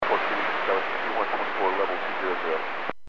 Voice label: Aviation 121.5 Emergency

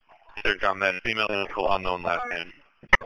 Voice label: Police Sheriff Dispatch

